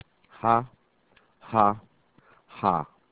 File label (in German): "h", German alphabet